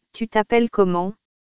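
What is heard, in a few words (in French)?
"Tu t appelles comment", French; grammaire; questions pour faire connaissance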